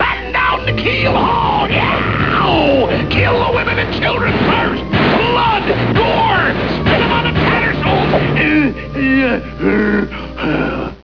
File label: pirate